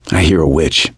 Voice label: heard witch, survivor voice; gambler